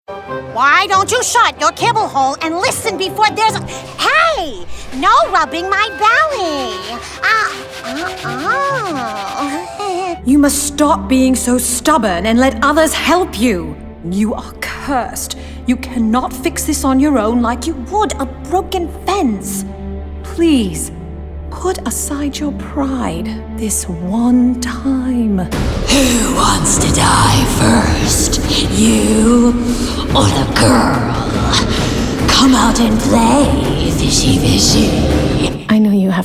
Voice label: vo interactive